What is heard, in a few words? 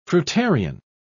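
"fruitarian", British English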